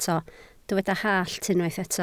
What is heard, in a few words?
"hallt", Welsh